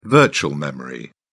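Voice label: "virtual memory", English, British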